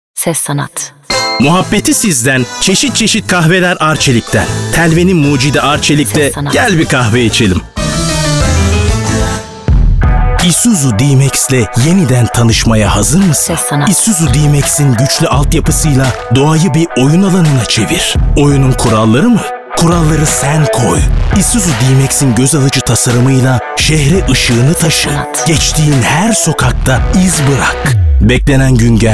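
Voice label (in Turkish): TR, Seslendirme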